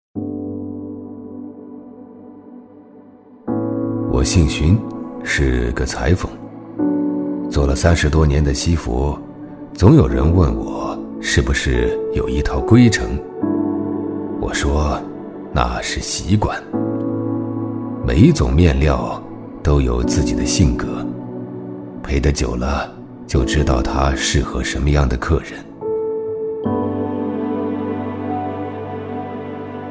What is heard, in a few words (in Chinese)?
男 角色 老者素人; 中英双语; 大气浑厚; 裁缝老荀